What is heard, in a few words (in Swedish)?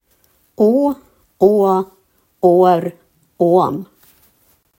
"å", daladialekt; gagnsmal